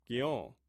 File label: "gion", Omaha